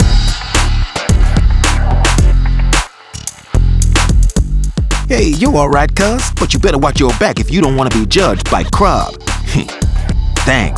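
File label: outro victory British; arthur and the revenge of maltazard; Wii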